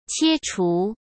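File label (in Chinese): "切除", Mandarin Chinese; hsk; chinese-flashcards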